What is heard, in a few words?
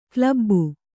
"ಕ್ಲಬ್ಬು", kannada